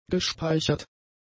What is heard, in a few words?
"gespeichert", German; adjectives